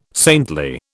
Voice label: "saintly", British